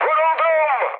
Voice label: aircraft destroyed, mood med, speech tur; War Thunder